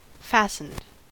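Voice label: "fastened", American English; pronunciations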